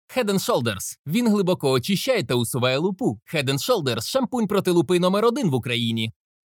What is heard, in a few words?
Ukrainian M AD; voice-over